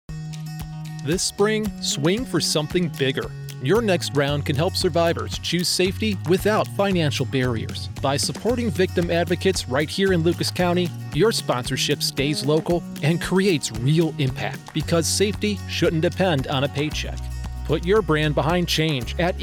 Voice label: promo; AVJ 2026